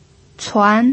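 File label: "cuan" second tone, Mandarin Chinese pinyin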